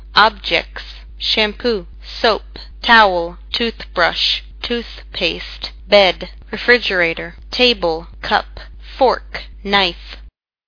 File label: english